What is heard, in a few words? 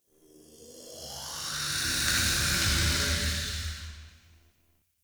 Amb Distant Wraith Whisper; Ambience; Mendicant Area